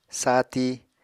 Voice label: "saati", Apatani

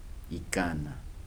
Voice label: "one hundred", Kibembe

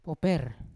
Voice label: "woper" clean, Yawa

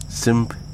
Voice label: "foot (leg)", Wahgi; lae unitech

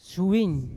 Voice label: "syuwin" evil, Yawa

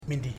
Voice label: "one", Ipili

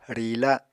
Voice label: "riila", Apatani

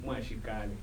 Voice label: "I am leaving", Kibembe

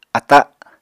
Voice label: "ata", Apatani

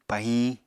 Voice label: "pahiñ", Apatani

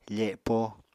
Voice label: "fepo", Apatani

